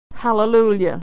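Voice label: "hallelujah", American English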